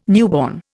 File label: "newborn", Indian